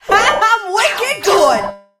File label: drill lead vo